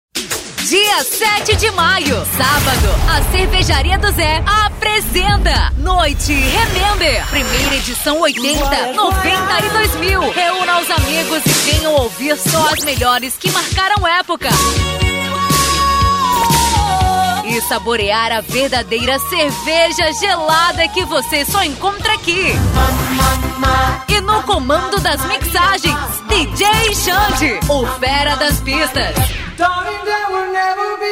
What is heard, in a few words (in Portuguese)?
locutora, chamadas; NOITE REMEMBER